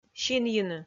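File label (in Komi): "синйыны", Komi, female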